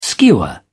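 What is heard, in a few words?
"skewer", British English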